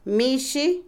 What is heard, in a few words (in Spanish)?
Otomí, mujer; gato; Animales; INALI